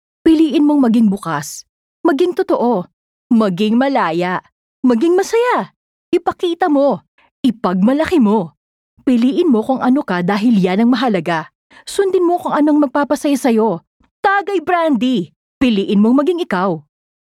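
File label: Tagalog (Conversational, Inspiring)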